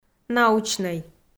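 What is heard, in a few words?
"научнӧй", Komi-Zyrian, female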